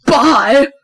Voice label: Snake Impression Bye